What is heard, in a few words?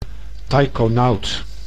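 "taikonaut", Dutch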